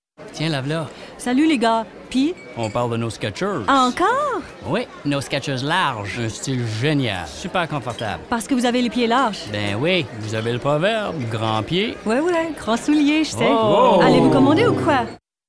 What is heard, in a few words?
French; skechers